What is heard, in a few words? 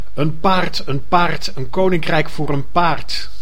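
"Een paard, een paard, een koninkrijk voor een paard", Dutch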